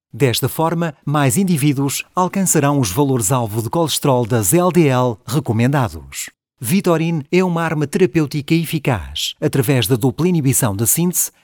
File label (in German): Sprecher Native speaker; professionelle Native Speaker